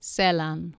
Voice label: "sellan", Anglo Saxon